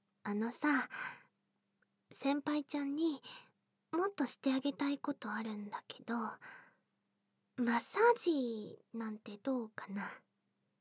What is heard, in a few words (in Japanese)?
あまあまマッサージ, バイノーラル; せんぱいちゃんにあまあまマッサージタイム♪; オタサーの姫は俺の嫁?！〜先輩ちゃんがしてほしいこと、な〜んでもしてあげる【バイノーラル】